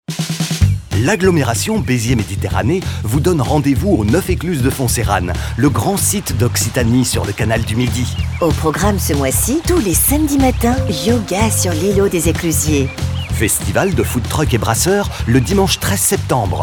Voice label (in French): spot radio; beziers mediterranee; fb occitanie